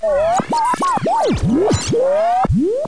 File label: Robot Voice